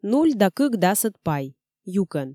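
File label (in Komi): 0,2 пай юкӧн, Komi, female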